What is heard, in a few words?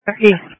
Police Dispatch